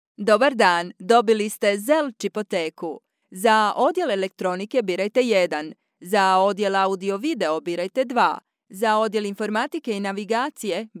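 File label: Croatian, IVR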